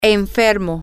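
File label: female, spanish; sick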